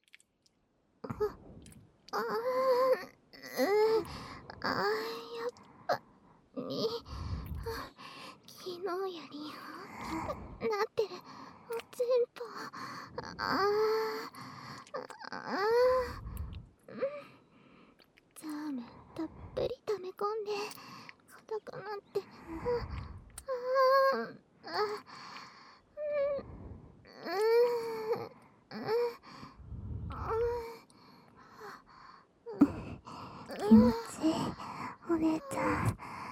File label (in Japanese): 反転 寝起きの囁き; 孕ませおねだりエッチ; 《100分超》エルフ姉妹の両耳囁き孕ませおねだり♪ ～二人が孕むまで元の世界には帰れません!～